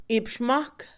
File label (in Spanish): Mixe, Mujer; treinta; Números; INALI